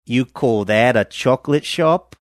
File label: you call that a chocolate shop australian